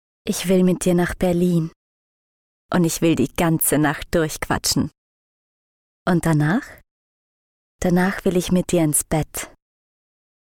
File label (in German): Frau